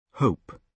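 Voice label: "hope", British English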